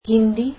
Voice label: Hindi

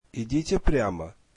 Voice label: Go straight, russian

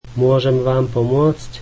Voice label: can I help you, slovak

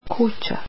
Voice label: house, croatian

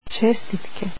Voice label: Congratulations, croatian

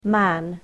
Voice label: "man", english

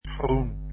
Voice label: "green", dutch

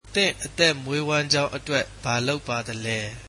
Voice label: What do you do for a living, burmese

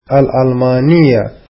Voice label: German, arabic

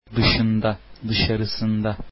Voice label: outside, Turkish

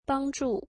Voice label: Help, mandarin

